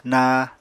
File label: "naa", Apatani